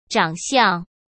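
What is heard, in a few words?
"长相", Mandarin Chinese; chinese flashcards; hsk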